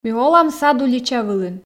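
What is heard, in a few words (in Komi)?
ми олам сад улича вылын, Komi, female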